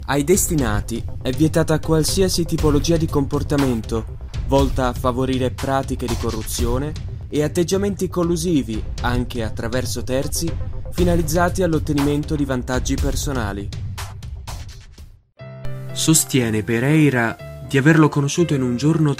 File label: Italian